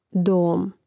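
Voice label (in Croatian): "dom", Hrvatski; mreznik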